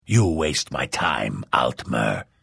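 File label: Hello, Vo; Morrowind GOTY GOG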